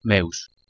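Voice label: "meus", Proto-Indo-European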